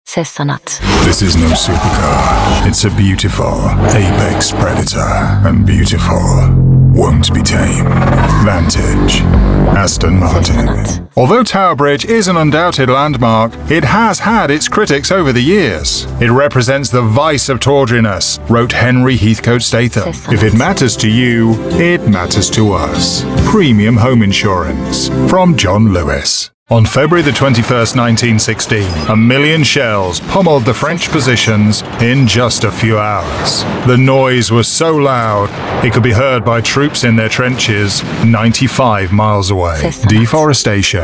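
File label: ENG British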